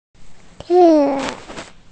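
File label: "zi4", Mandarin Chinese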